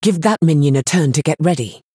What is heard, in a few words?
Female High Elf ERROR SUMMON SICKNESS